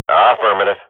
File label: radio bot reponse positive, Counter-Strike swat